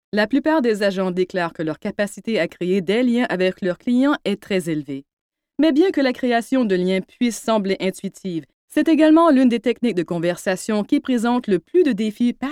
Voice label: French Narration